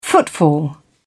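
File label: "footfall", British English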